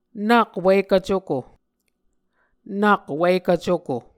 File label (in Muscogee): nak wikv cuko, muscogee